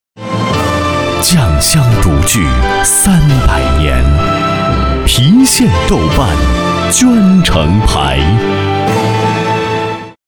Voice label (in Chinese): 央视广告 郫县豆瓣酱